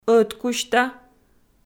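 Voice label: "ӧткузьта", Komi, female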